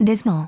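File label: "dismal", English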